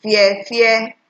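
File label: "fearfear", Nigerian Pidgin, female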